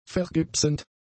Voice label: "vergipsend", German; adjectives